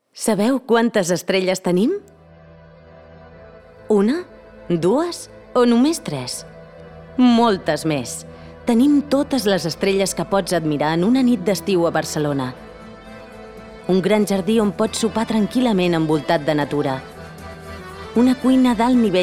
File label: CORPORATE, CATALAN FEMALE